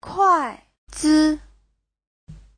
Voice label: "kuai4 zi5", chinese; 筷子; (danh từ) đũa; Giáo trình chuẩn HSK3

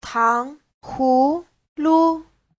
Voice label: "tang2hu2lu5", Chinese; 糖葫芦; kẹo hồ lô

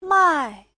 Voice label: "mai4", chinese

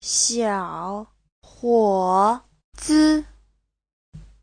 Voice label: "xiao3 huo3 zi5", Chinese; 小伙子 : (danh từ) chàng trai; Giáo trình Hán ngữ

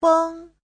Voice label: "feng1", chinese

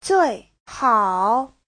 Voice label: "zui4hao3", Chinese; 最好 : tốt nhất

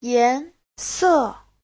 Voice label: "yan2se4", Chinese; 颜色

